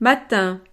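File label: "matin", French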